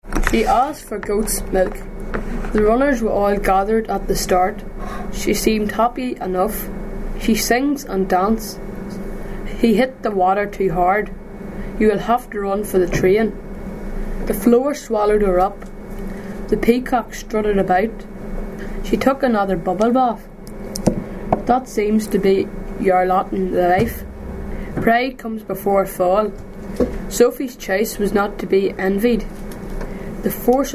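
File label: Irish English, North of Ireland, sentences, Armagh City, Co. Armagh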